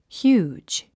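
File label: "huge", English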